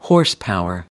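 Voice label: "horsepower", American English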